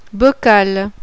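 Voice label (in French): "BOCAL", French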